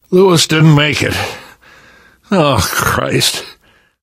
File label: grief manager, survivor voice